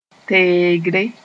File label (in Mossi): "teegre", moore